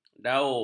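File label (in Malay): "daup", Malay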